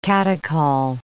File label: "catechol", English